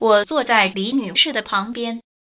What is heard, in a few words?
Chinese